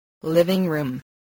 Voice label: "living-room", spelling